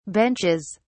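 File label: "benches", British English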